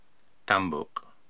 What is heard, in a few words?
"Tambuk", Pronunciation